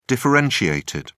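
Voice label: "differentiated", British English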